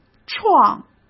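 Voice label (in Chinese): "创", Mandarin Chinese; chinese-flashcards; hsk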